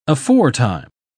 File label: "aforetime", uk